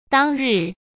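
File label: "当日", Mandarin Chinese; chinese-flashcards; hsk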